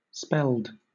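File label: "spelled", British English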